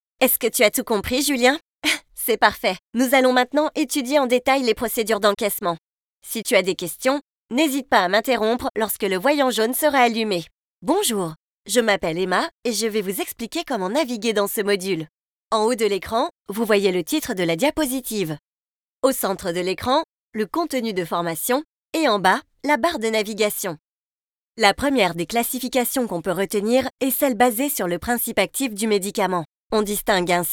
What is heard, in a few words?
e-learning